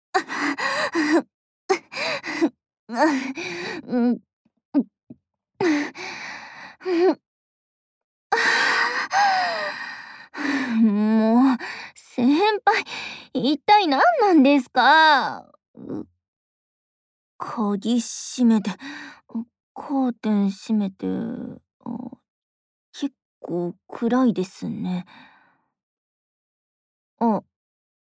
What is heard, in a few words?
Whisper, ASMR; LOVEWhisperこうはい